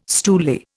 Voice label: "stoolie", Indian

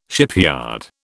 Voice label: "shipyard", British

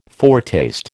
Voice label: "foretaste", American

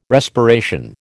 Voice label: "respiration", American

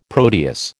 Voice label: "Proteus", American

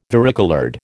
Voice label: "varicoloured", American

